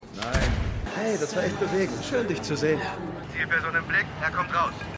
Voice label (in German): Inhaftierter Mann In Bar Funkstimme; The Lincoln Lawyer